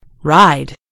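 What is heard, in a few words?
"ride", British English; gcompris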